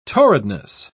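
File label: "torridness", English, British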